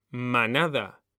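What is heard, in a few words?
"manada", Spanish, male; mekanta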